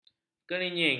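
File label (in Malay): "kernying", Malay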